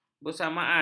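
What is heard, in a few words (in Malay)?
"bersamaan", Malay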